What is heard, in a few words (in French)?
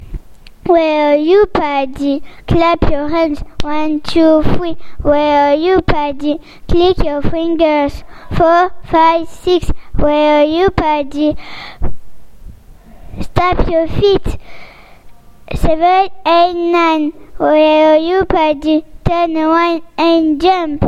anglais